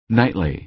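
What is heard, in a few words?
"nightly", American English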